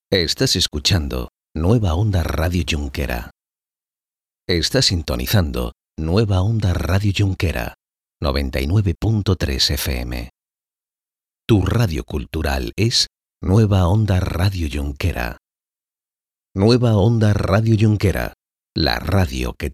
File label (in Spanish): locución indicativos; Ondas Yaiza